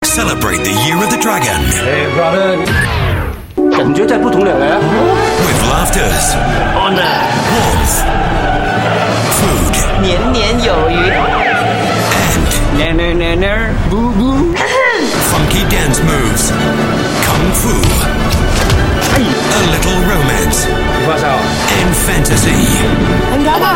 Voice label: UK English